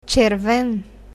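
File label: "red", Bulgarian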